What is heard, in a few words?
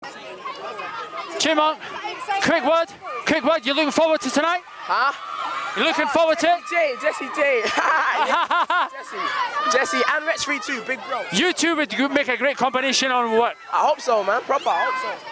Funny Words With Chipmunk